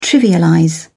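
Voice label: "trivialize", British English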